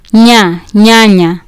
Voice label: "nya" "nyanya", Kiswahili

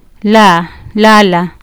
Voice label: "la" "lala", Kiswahili

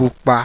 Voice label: "PUPA", Yoruba, Red

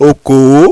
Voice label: "Okoo", Yoruba

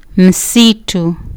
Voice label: "msitu", Kiswahili

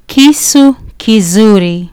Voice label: "kisu kizuri", Kiswahili

